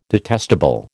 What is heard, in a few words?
"detestable", American